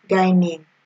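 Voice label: "guy name", Nigerian Pidgin, female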